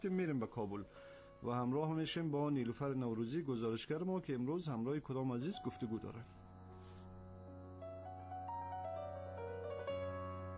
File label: Dari